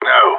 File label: negative, radio; GSG9